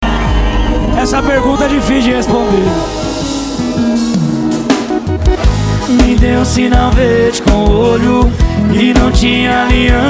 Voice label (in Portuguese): locutor a voz magnifica